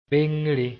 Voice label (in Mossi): "bengre", moore